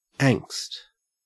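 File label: "angst", Australian English; pronunciations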